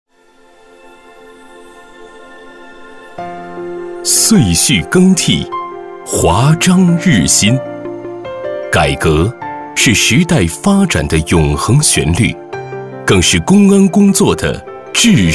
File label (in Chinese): 大气 希望; 龙港市